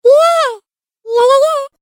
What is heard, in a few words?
Cartoon Game Voice Yeahs; Cartoon Voice Sound Effects